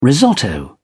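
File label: "risotto", British English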